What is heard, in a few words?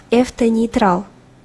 girl